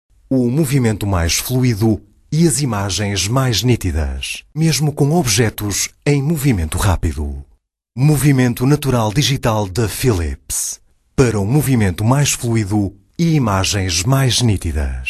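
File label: Portuguese, male, Commercial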